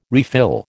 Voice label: "refill", American

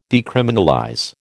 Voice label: "decriminalize", American

